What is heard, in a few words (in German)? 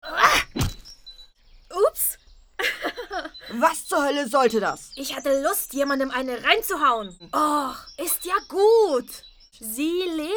Fantasy Hörspiel Vampir